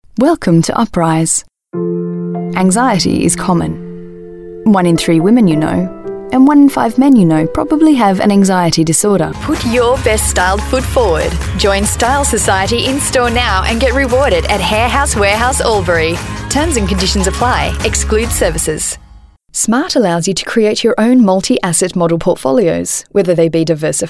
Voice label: female talent retail